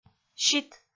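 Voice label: "щит", Komi, female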